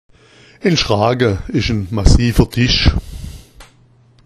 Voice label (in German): "schraga", schwaebisch